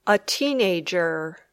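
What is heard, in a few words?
"a teenager", English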